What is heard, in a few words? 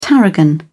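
"tarragon", British English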